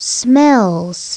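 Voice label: "smells", English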